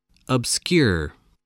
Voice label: "obscure", voice, spelling